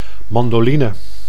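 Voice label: "mandoline", Dutch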